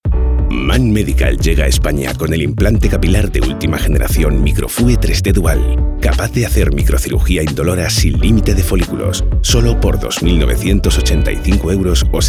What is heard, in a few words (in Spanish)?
Cuña Medical